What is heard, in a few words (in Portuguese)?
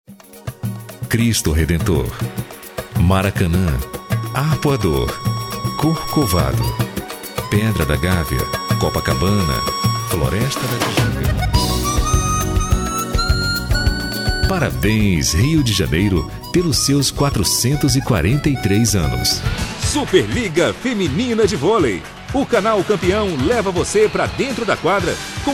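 Masculino, Português - Brasileiro, Medley Tv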